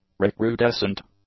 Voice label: "recrudescent", English; adjectives